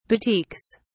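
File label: "batiks", British English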